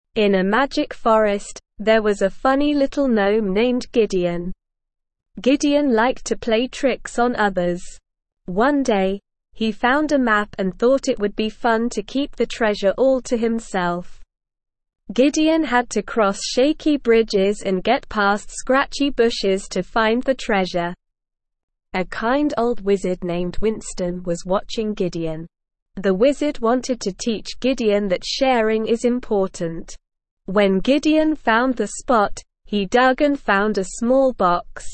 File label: ESL Short Stories for Kids Beginner SLOW Reading; The Gnomes Hidden Treasure